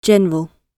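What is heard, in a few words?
"general", British English; Oxford Audio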